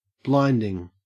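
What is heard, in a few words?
"blinding", English, Australian accent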